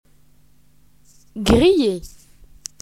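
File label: "grilled", French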